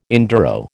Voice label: "enduro", American

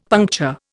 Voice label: "puncture", Indian; Dictionary

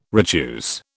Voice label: "retuse", British

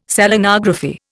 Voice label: "Selenography", Indian

